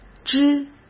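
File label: "汁", Mandarin Chinese; hsk; chinese-flashcards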